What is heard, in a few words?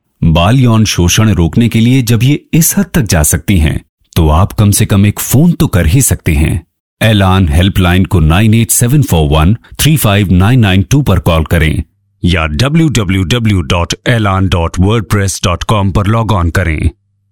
Hindi, male voice